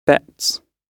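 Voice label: "bets", British English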